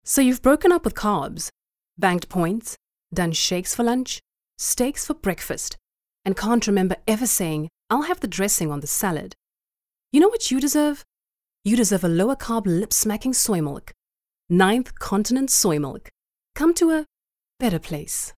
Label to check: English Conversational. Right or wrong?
Right